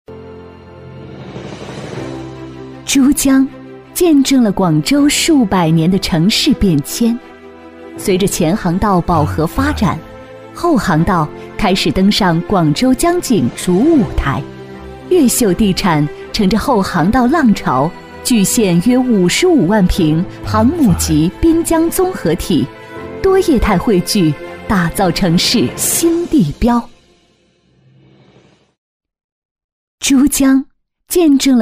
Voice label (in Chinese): 女 房地产宣传 时尚大气; 大气知性; 年轻时尚; 越秀·天荟江湾